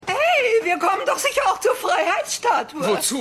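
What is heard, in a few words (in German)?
Ältere Frau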